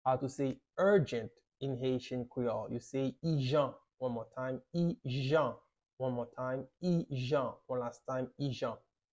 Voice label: "Ijan" Haitian Creole pronunciation by a native Haitian tutor; How to say Urgent in Haitian Creole